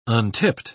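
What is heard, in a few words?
"untipped", British English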